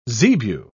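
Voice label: "zebu", British English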